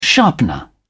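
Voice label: "sharpener", British English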